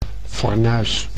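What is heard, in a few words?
"fornuis", Dutch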